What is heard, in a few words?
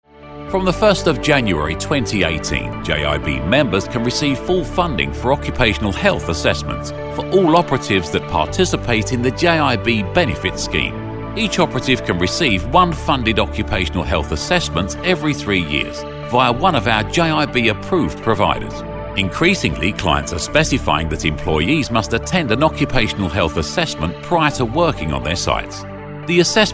example of instructional voiceover